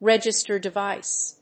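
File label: "register device", English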